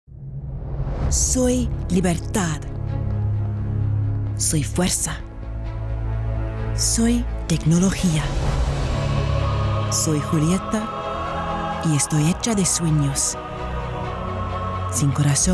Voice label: Spanish commercial montage